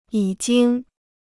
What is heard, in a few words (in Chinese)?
"已经", Chinese